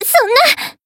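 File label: Voice damaged, Japanese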